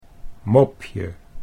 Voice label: "mopje", Dutch